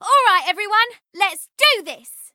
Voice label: battlecry; tf; tracer

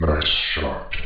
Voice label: nice shot phased

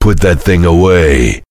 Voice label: heavy no; tf; duke

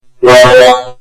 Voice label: robo mario death

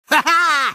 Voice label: battlecry; tf; crash

